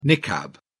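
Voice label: "niqab", British English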